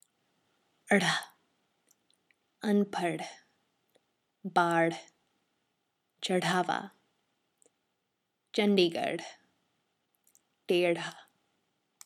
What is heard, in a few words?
rh sound